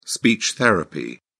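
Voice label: "speech therapy", English, British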